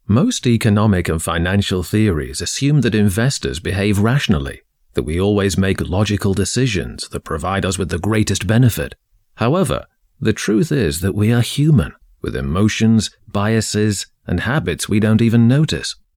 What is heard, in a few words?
CORPORATE Caring